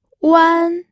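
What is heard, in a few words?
"wan1", Mandarin Chinese, pinyin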